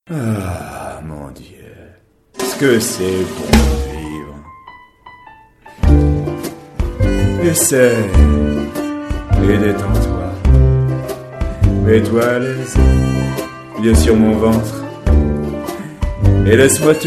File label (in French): Divers voix animation vieux bavards